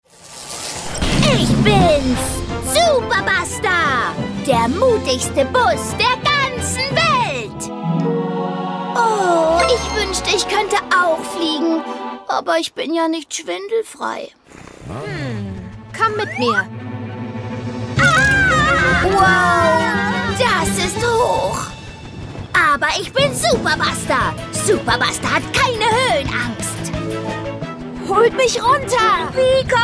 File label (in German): hoerspiel; gobuster superbuster